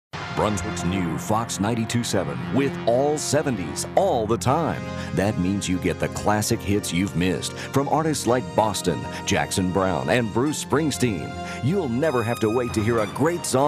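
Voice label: Radio Station Promo, male voice; Radio / TV Imaging; FOX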